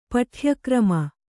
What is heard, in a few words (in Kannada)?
"ಪಠ್ಯಕ್ರಮ", Kannada